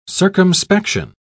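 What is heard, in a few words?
"circumspection", British English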